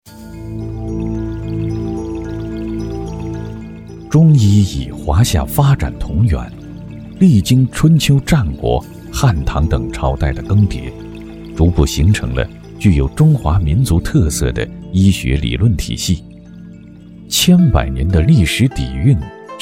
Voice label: 男, 记录片; 中医

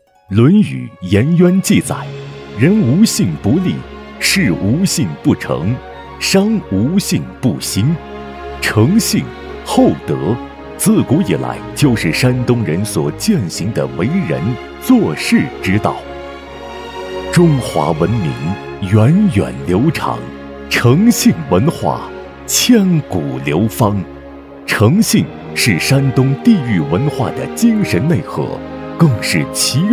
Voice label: 男 专题 文化专题

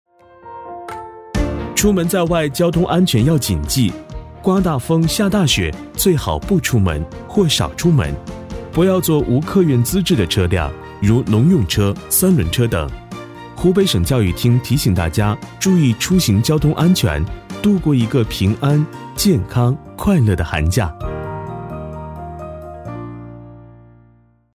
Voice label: 男-公益-安全教育

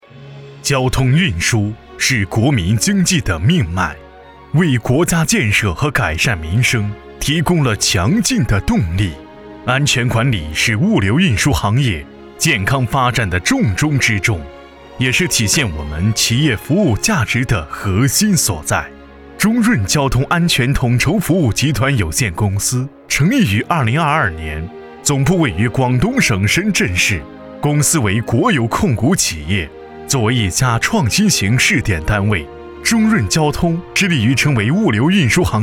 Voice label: 男 稳重大气 专题 交通运输是国民经济的命脉

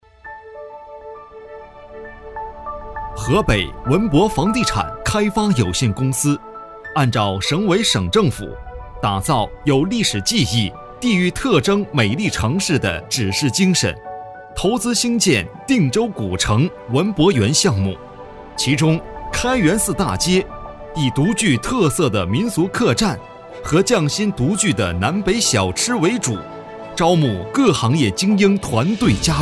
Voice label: 男 旅游 国家级景区招商宣传

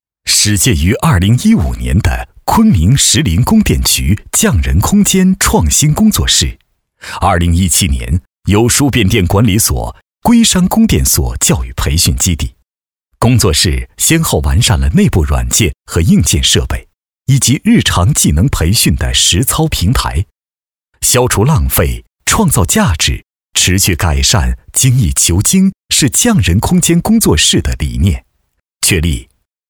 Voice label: 男 企业专题 磁性

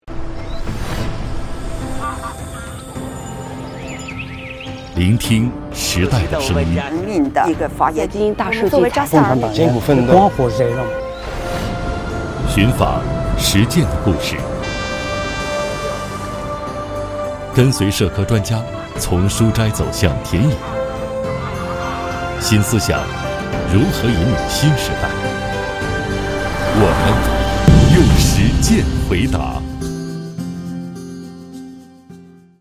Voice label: 男 片花; 用实践回答

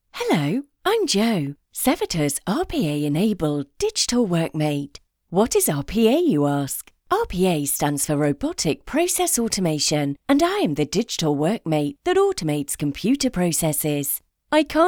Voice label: 外籍英女 自然讲解 机器人电脑助手

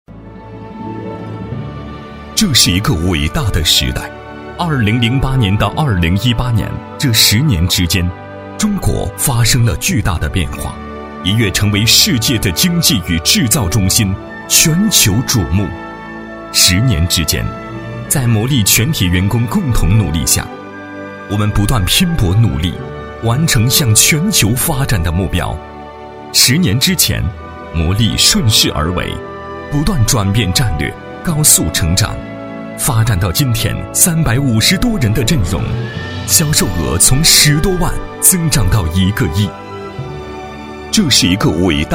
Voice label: 男 大气专题; 莱创企业文化

